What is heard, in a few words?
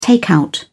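"takeout", British English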